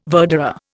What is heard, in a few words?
"verderer", Indian